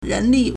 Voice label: "ren2 li4", Chinese

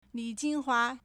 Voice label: "lǐ jīn huá", Chinese

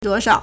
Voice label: "duo1shao3", Chinese

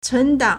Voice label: "cun2 dang4", Chinese

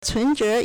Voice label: "cun2 zhe2", Chinese

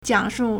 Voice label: "jiang3 shu4", Chinese

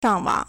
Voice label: "shang4wang3", Chinese; 上网; 上網; shàngwǎng; verb

